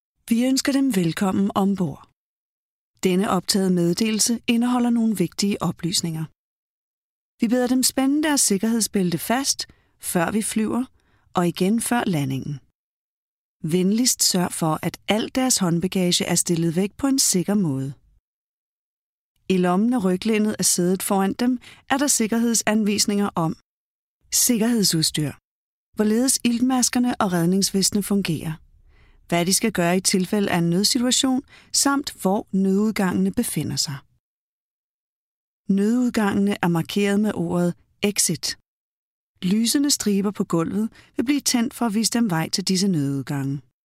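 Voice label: Danish, Corporate; GoLocalise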